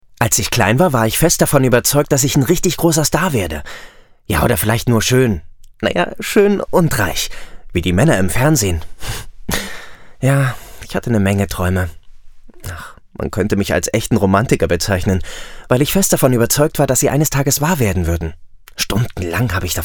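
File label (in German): Kommentar Freundlich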